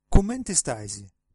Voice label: how are you, sardinian

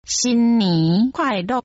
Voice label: happy new year, Taiwanese; 新年快樂; sin-nî khòai-lo̍k; Chinese (Taiwanese)

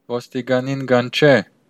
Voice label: police, armenian western

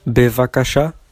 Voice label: please, hebrew

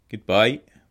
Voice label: goodbye, scots

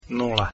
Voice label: 0 croatian, numbers

